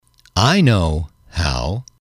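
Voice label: i know how, English, male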